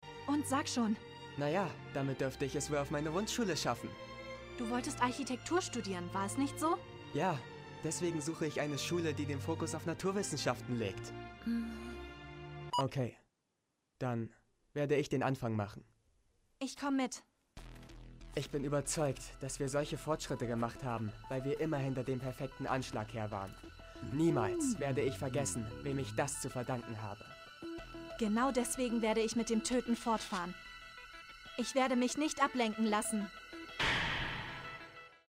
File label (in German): Synchron; Assassination Classroom II; Chiba